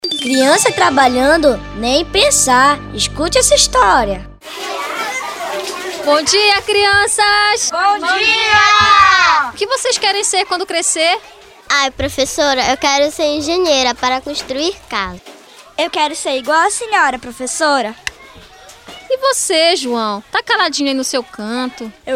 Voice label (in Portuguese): Spot; Piores formas Trabalho Infantil